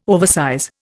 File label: "oversize", Indian